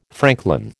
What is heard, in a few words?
"franklin", American